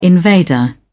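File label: "invader", English, uk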